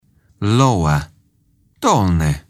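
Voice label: "lower", English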